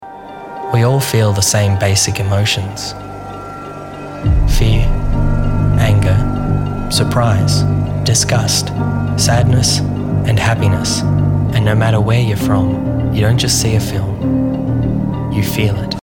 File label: Emotions